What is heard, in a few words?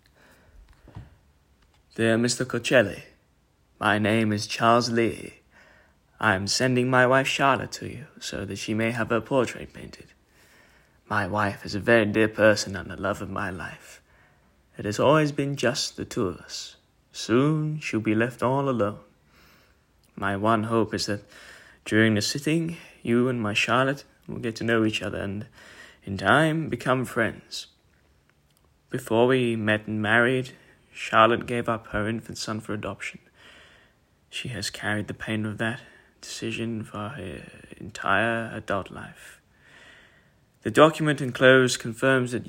voiceover